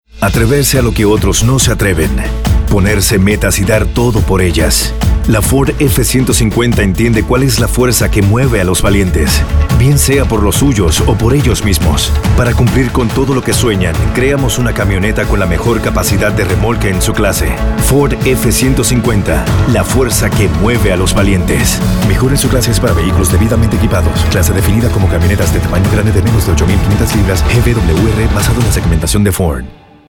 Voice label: Radio SPA; Ford F150; Bravest